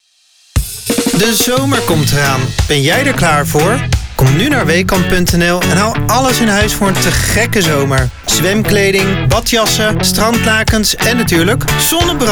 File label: Commercial - soft sell